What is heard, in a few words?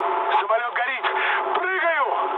on fire, mood low, speech ru; War Thunder